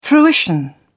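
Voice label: "fruition", British English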